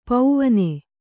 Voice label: "ಪವಣ", Kannada

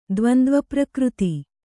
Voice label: "ದ್ವಂದ್ವಪ್ರಕೃತಿ", Kannada

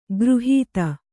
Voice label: "ಖುರಾಹತಿ", kannada

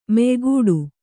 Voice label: "ಮೆಯ್ಗಿಡು", Kannada

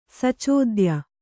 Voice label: "ಸಚೋದ್ಯ", Kannada; sacōdya; that is wonderful; marvelous; amazing.

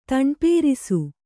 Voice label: "ತಣ್ಪೇರಿಸು", Kannada

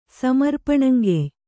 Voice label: "ಸಮರ್ಪಣಂಗೆಯ್", Kannada

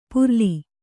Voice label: "ಪುರ್ಲಿ", Kannada

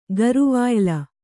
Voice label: "ಗರುವಾಯ್ಲ", Kannada

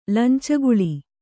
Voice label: "ಲಂಚಗುಳಿ", Kannada

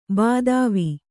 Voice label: "ಭೂಧವ", Kannada

